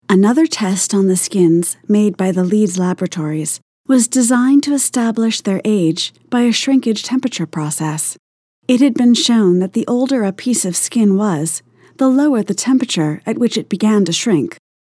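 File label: CORPORATE, American English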